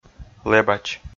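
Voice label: "лэбач" Komi, male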